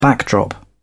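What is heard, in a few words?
"backdrop", British English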